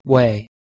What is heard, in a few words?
"we", Anglo Saxon